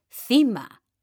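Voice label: "cima", Spanish, female; mekanta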